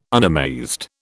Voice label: "unamazed", British

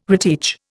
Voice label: "reteach", Indian

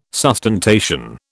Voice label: "sustentation", British

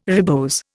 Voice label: "ribose", Indian

